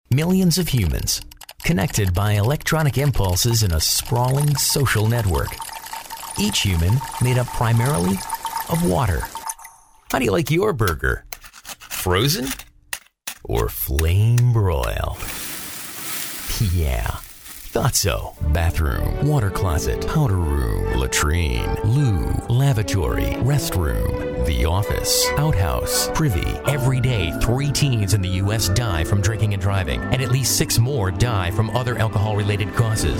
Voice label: COMMERCIAL